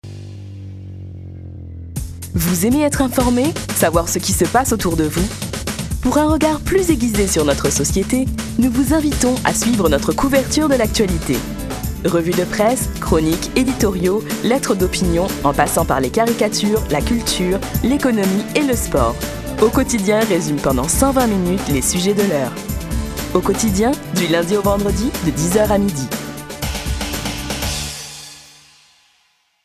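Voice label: French female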